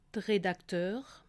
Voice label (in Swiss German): "redakteur", alsace